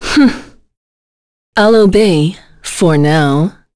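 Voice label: Vox get, voices heroes English; Tanya; kings raid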